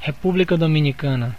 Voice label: "dominican republic", pt BR; gcompris; geography